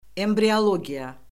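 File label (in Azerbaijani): "embriologiya", Azerbaijani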